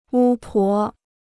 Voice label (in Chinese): "巫婆", Chinese